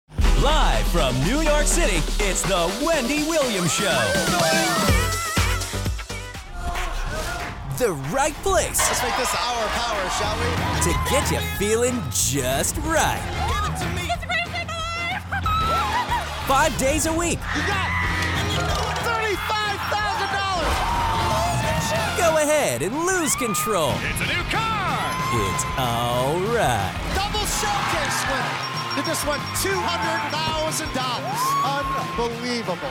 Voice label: english american promos

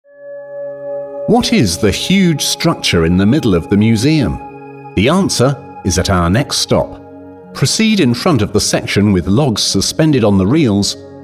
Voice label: english british audio guides; Voz nativa británica, desde RP hasta Essex

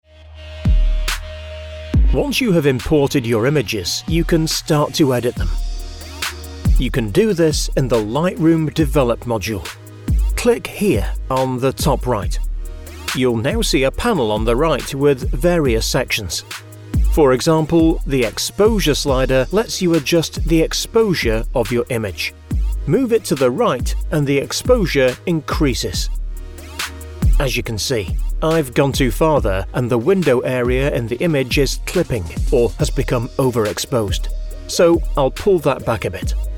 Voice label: english british e-learning

